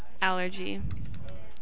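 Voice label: "allergy", American, female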